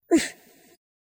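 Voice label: Ooff, Spanish; hedgewars; Default es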